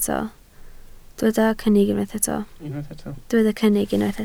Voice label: "cynnig", Welsh